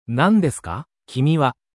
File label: anime